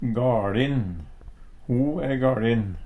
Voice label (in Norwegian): "gaLin", Numedalsmål; Ho e gaLin!